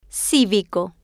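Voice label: "civico" Spanish, female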